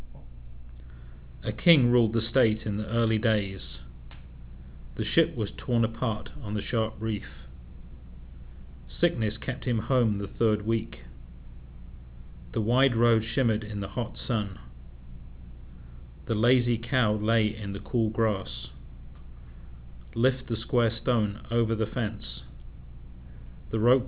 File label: british, open speech; uk